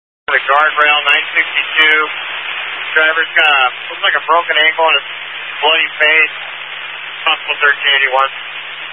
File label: Highway Patrol, Phoenix Metro West Area, scanner